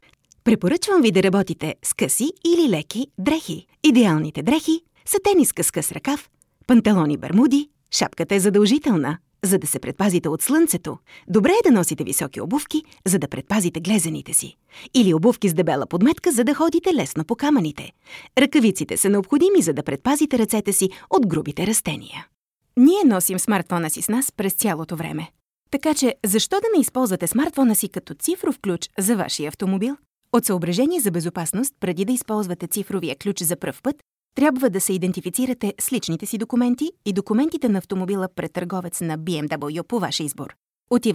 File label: Corporate Bulgarian